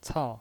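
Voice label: "cao", Mandarin Chinese, fourth tone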